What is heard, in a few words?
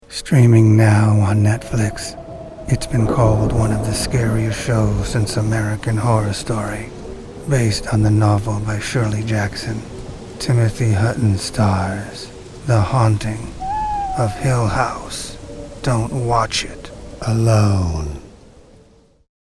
TV Promo; The Haunting of Hill House